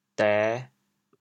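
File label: "dê5", Teochew, chaoyang; 王婆賣瓜自賣自誇; 俗语; 潮阳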